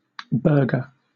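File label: "burger", British English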